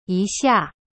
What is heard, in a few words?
"一下" yi xia, chinese